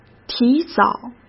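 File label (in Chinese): "提早", Mandarin Chinese; chinese flashcards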